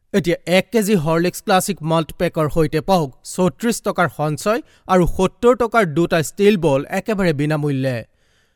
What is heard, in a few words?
ASSAMESE MALE